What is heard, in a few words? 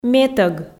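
"метӧг", Komi, female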